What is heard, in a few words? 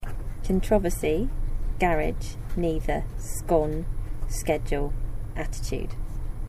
North London England female